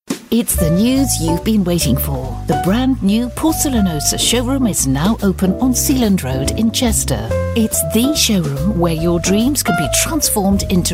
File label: commercial